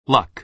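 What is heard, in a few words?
"luck", American English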